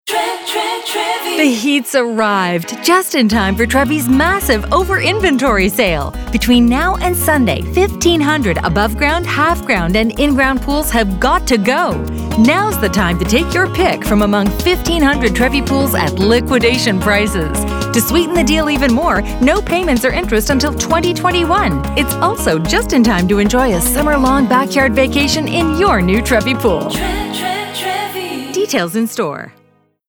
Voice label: commercial